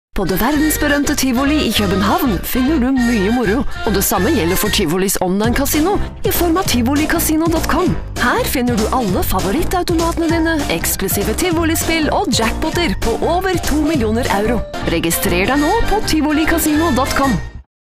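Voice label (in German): Norwegian, Werbung Dynamisch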